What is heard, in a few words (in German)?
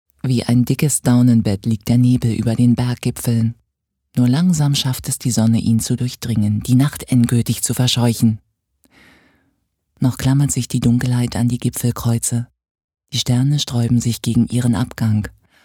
Schauspiel Variabel